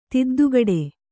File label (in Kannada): "ತಿದ್ದುಗಡೆ", Kannada